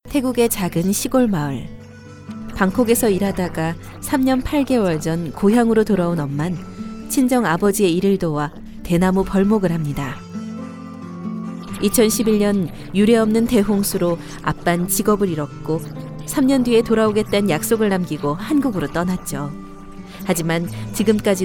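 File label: Korean, female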